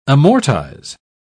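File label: "amortise", English, British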